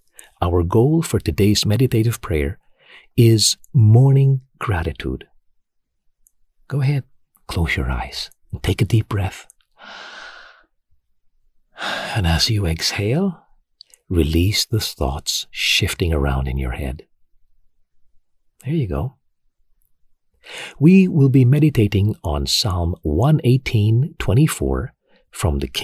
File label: Meditation; Gary V